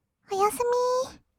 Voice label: おやすみ, kawaii voice; ASMR; 挨拶; 1200 kawaii voice!!

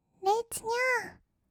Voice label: "れっつにゃー", kawaii voice; ASMR; 1200 kawaii voice!!; 音ゲー

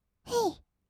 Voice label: "へい", kawaii voice; 音ゲー

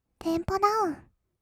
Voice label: テンポダウン, kawaii voice; ASMR; 1200 kawaii voice!!; 音ゲー

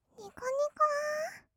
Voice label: "にこにこー", kawaii voice; ASMR; 色々なワンショット

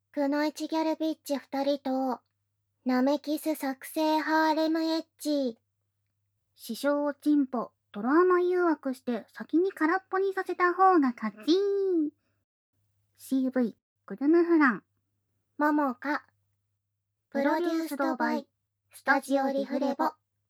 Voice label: タイトルコール, ASMR

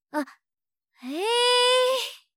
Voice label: "へ、へぇ" 相づち・反応系 ネガティブ

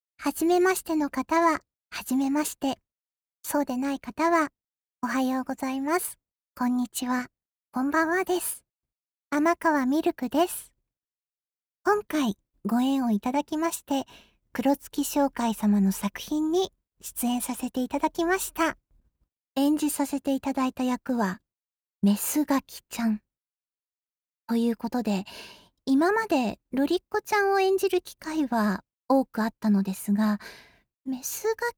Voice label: フリートーク

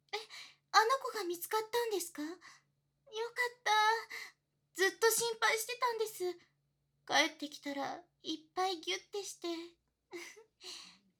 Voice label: おまけ, ASMR

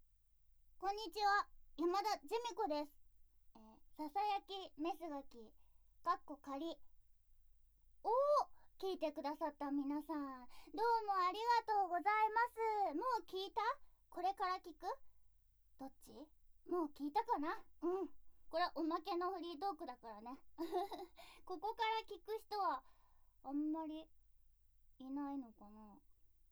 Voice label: フリートーク

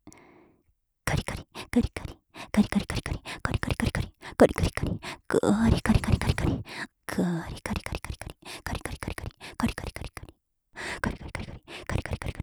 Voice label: お姉さんカリカリ速い, 左耳; オノマトペ

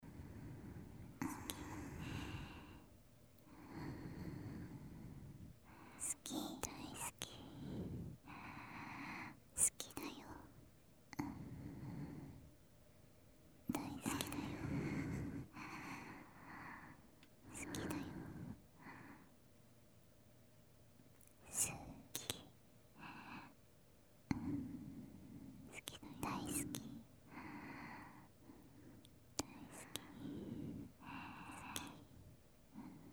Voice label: 両耳「好き」ループ; ASMR

